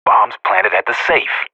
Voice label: radio bot bomb at safe, vo agents professional; Source Model Packs; Counter Strike Model Pack; CS2